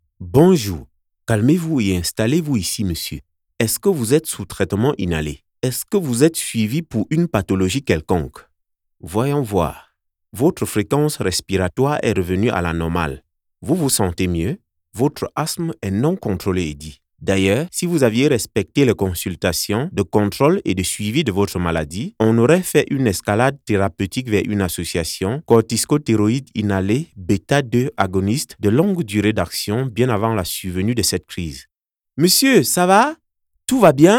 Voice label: African French Dubbing

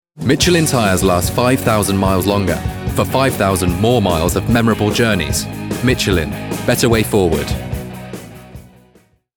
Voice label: ad

